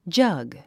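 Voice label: "jug", English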